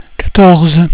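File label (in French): "quatorze", French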